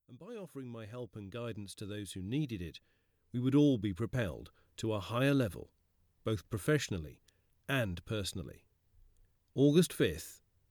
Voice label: Ebook Sample